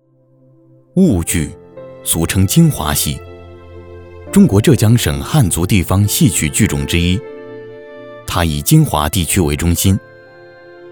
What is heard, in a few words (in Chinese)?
男 纪录片 婺剧解说